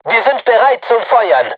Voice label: voice message art charged, crew tanks german